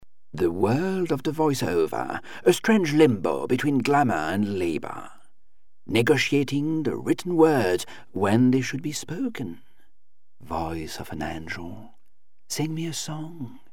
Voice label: french accent, foreign accent voice overs; Male voice overs; foreign languages

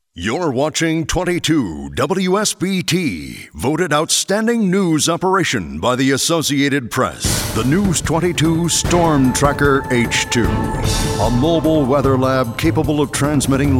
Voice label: promo, male voice talent

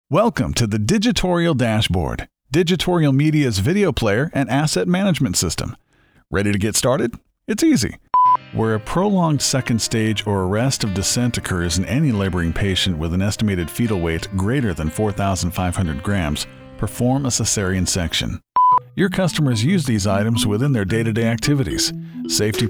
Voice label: Narr, male voice talent

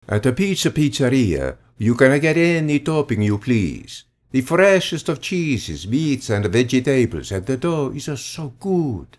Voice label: Italian Accent; foreign languages

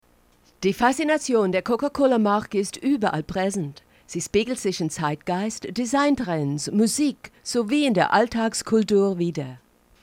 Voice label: German

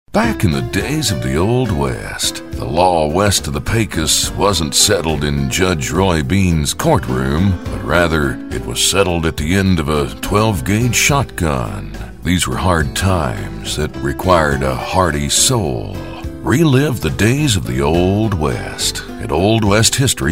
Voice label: male voice talent

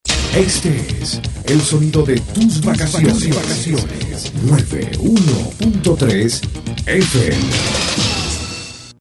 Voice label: spanish imaging

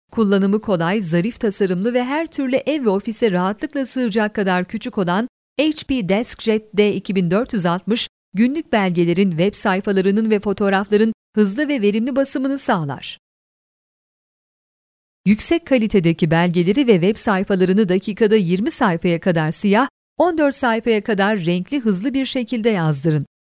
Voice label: Turkish; foreign languages

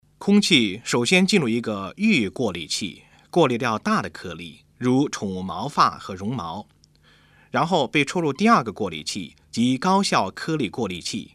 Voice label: Chinese Mandarin; foreign languages

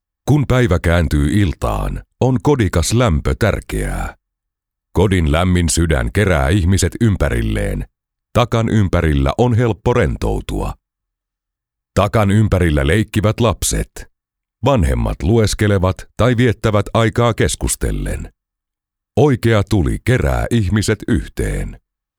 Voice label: Finnish; foreign languages

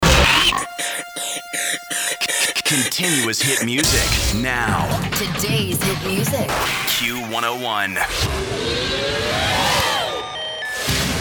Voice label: imaging, male voice talent

